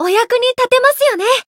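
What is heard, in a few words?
growth, Japanese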